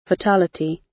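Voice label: "fatality" British; Space, the planets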